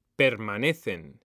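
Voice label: "permanecen", Spanish; mekanta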